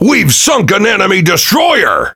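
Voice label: Pirate Speech Destroy Enemy Destroyer, English pirate; World Of Warships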